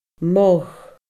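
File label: "modh", Scottish Gaelic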